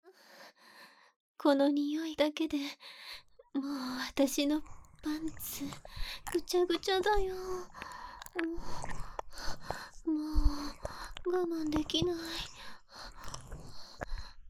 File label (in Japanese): 耳舐めされながらオナニー・フェラ・アナル舐め手コキされる音声, おっとり巨乳ナース